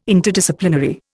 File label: "Interdisciplinary", Indian